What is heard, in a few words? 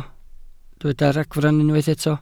"ragfarn", Welsh